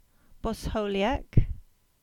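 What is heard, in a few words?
"Bosiliack" Cornish; Bosheulyek 1245 (Gover, 1948)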